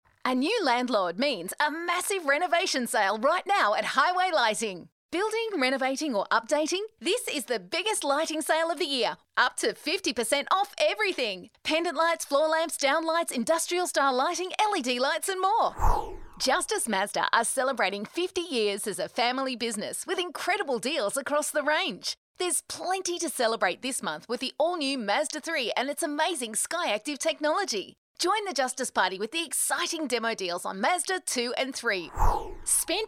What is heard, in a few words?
Hard Sell